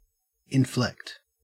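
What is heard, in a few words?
"inflect", Australian English; pronunciations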